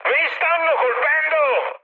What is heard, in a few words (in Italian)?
aircraft damaged, mood high, speech it